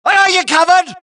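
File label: suppress, dialogue, Allies; mohaa; Mission 1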